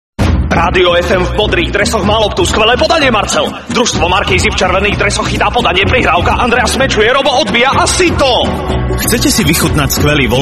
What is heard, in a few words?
radio spot fm radio